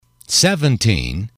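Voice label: "seventeen", English, male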